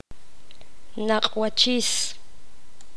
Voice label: poqomam; ojo